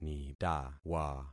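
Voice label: "nitawa", concatenated syllables; Affixing experiment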